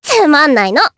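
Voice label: Vox Victory, Japanese; Sonia; kingsraid audio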